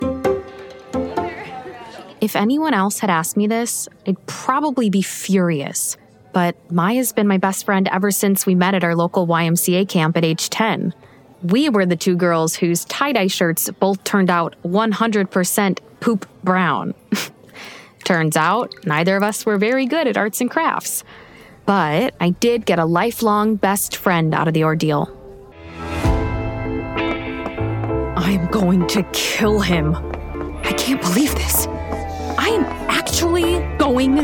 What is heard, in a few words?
Podcast Audiobook